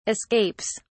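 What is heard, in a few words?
"escapes", British English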